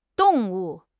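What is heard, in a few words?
"dong4 wu4", Chinese; HSK3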